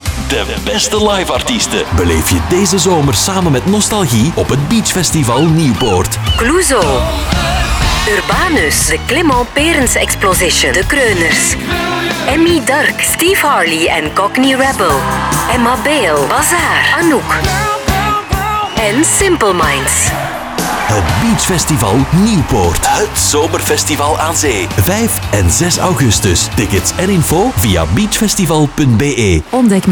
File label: TV spot; TYPE B Beachfestival; Algemene Marketing